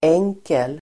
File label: "enkel", Swedish